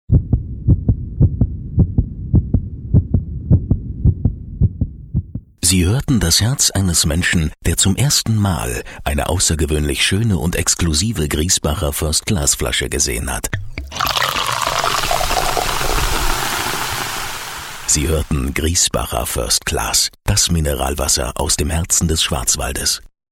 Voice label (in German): Werbung Freundlich